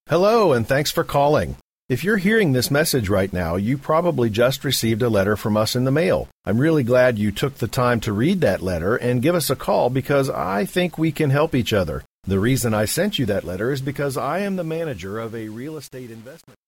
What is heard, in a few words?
voicemail script male